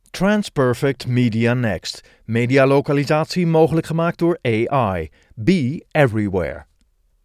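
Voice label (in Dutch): Non-broadcast Promo, Voice-over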